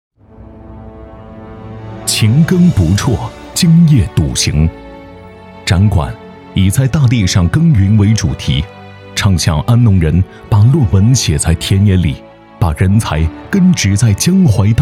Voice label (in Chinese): 男 专题 解说词; 安农大解说词